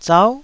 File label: "zau9", Taiwanese